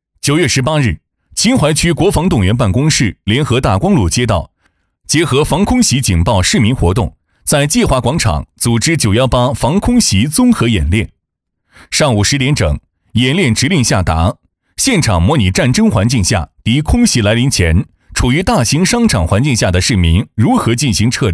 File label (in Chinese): 男 政府活动